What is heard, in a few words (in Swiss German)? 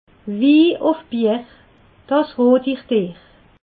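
"wi", Alsatian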